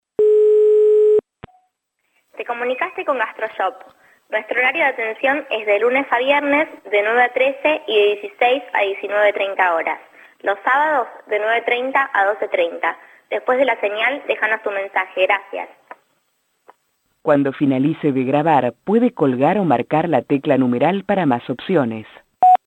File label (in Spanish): mensajes telefonicos